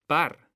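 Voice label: "par", Spanish; mekanta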